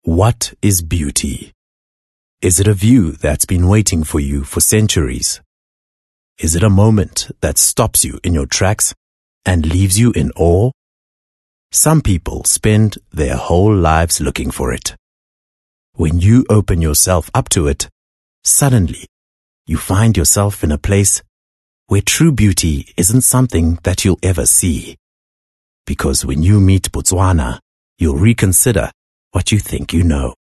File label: English Intimate